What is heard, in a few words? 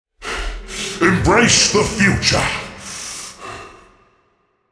Midfight Mono; NPC; Malachai Combat